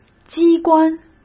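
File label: "机关", Mandarin Chinese; hsk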